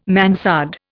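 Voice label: "mansard", Indian English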